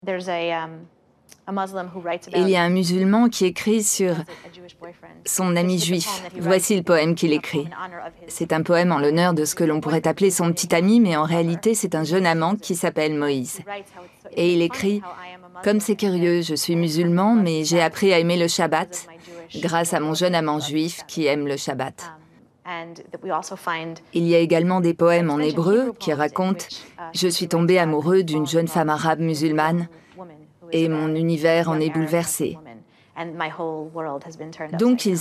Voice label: voice over, complice posee; JUIFS ET MUSULMANS SI LOIN SI PROCHES

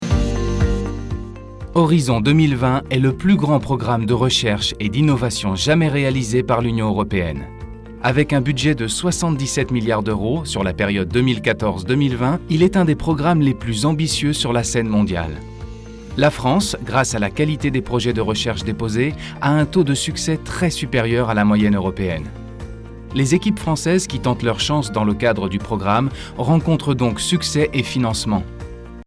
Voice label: Institutionnel; H20

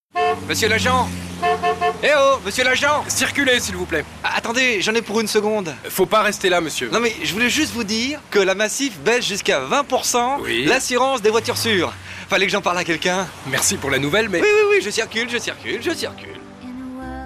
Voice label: policier bonne humeur; MACIF

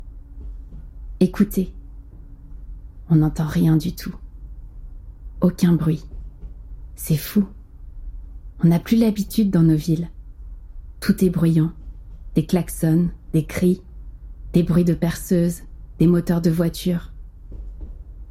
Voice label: LIVRE AUDIO Voyage En Train Silence

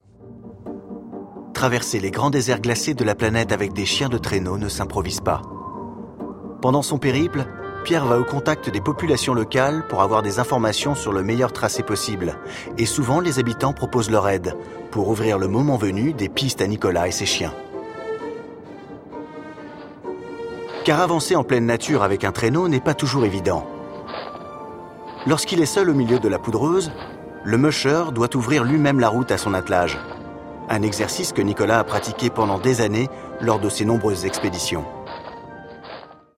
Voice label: Voix journalistique commentaires; La dernière meute de Nicolas Vanier; M6

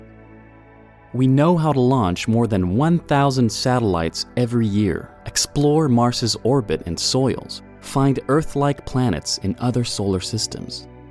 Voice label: Narration docu Exploration Spatiale US

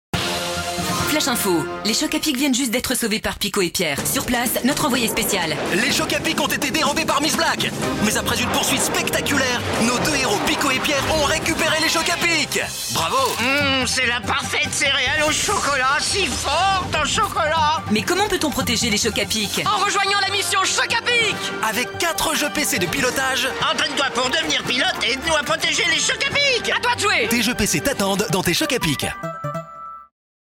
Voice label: voix journaliste; voix-off; Mission Chocapic

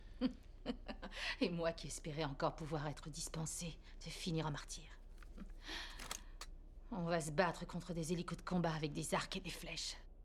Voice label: doublage; Michèle Rodriguez; Avatar 2

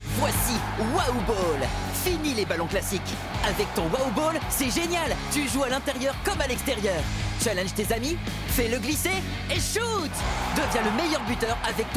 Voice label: Publicite; Wahu Ball

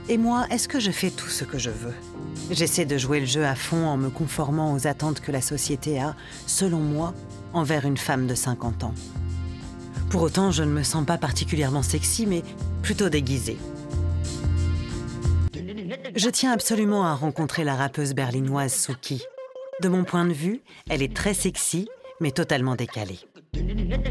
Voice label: Voix Posée DOCU; ARTE

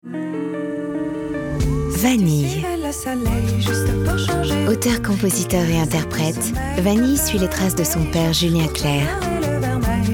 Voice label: F FR TV; VANILLE

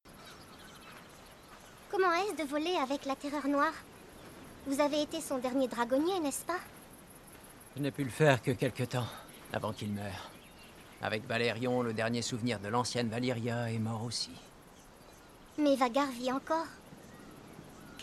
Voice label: Voix jeune fille; House of the dragon

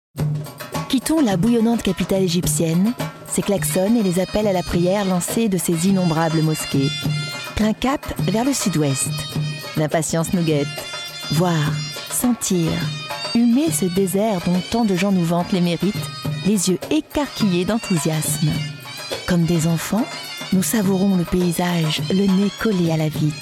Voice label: Docu curieuse calme douce; Egypte et déserts

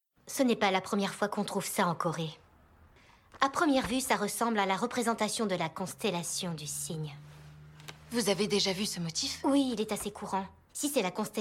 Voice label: Voix femme scientifique serie; GLITCH

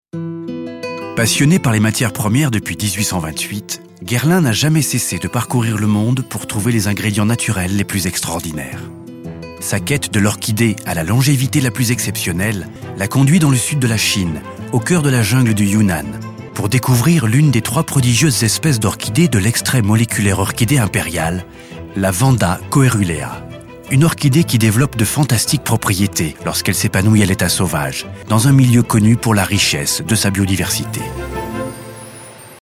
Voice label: CORPORATE Souple Narratif Colore; GUERLAIN

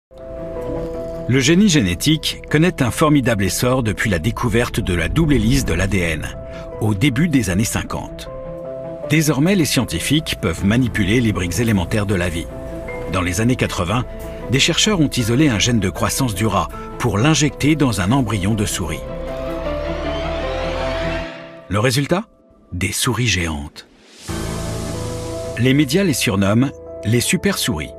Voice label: Voix Narrative; ARTE; Dolly La brebis qui a change le monde

